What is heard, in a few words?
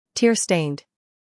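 "scandal", American; tear-stained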